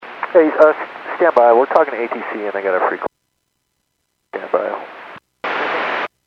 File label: Aviation 121.5 Emergency